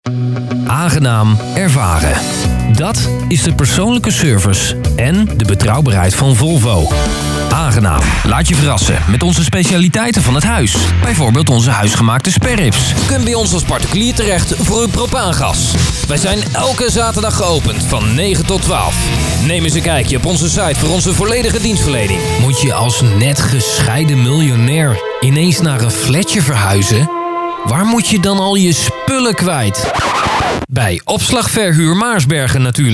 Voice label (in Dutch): Mannenstem Dutch Voice Talent; Radiospot